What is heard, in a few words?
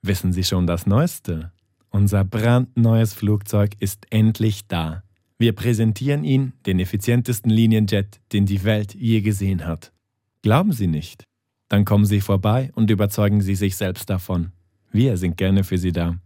male, German, commercial; romansh and swiss german